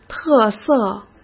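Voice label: "特色", Mandarin Chinese; chinese-flashcards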